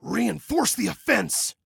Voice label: Attack Reinforce, Male; Male 01